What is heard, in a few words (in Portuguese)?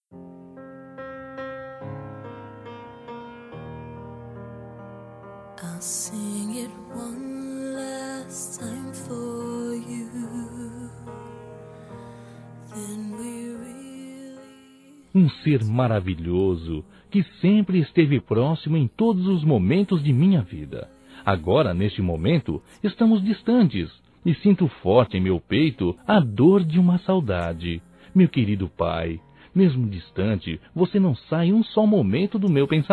Pai Masculino, Telemensagens Saudades